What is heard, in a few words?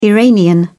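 "iranian", English, British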